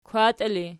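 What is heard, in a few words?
"kwatii", ergative, Archi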